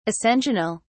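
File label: "ascensional", British English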